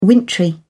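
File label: "wintry", British English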